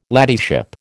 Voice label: "ladyship", American

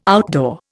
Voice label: "outdoor", Indian

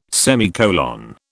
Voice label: "semicolon", British

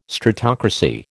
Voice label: "stratocracy", American

